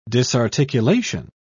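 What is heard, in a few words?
"disarticulation", British English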